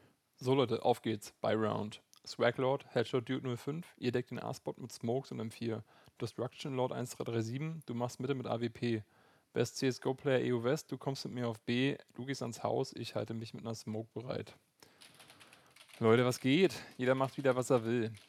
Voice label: Aufnahme Creative SXFI Gamer via USB

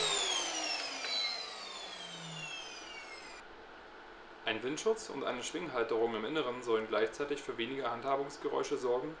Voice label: Aufnahme Xiaomi Mi Note 10 und Sennheiser MKE 200

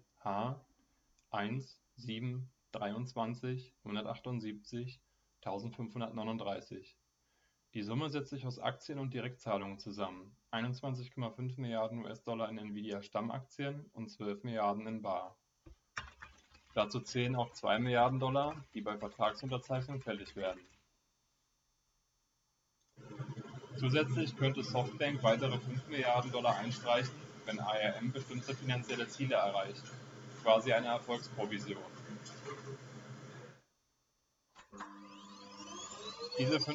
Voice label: Internes Notebook Mikrofon

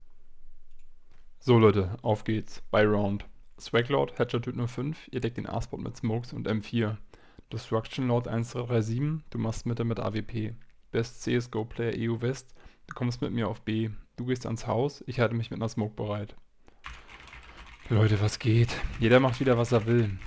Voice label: Testaufnahme Corsair Virtuoso RGB Wireless SE Funk

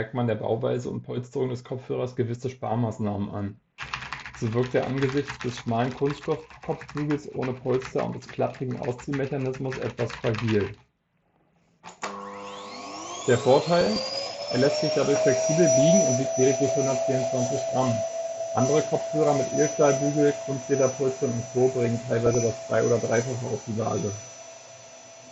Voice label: Testaufnahme Sennheiser HD 250BT Wireless BT Kopfhoerer

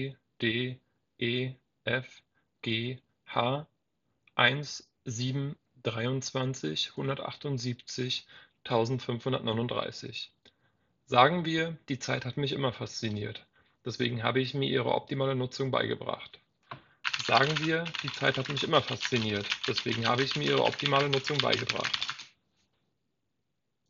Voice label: Mikrofontest Jabra Elite 85h via Creative BT W3

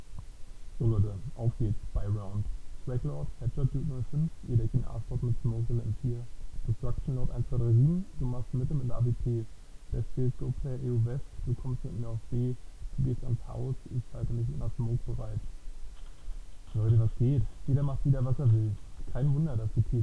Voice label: Aufnahme Creative SXFI Gamer via Klinke

